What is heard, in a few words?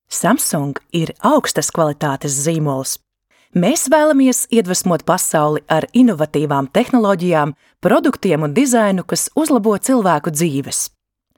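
Latvian, female, commercial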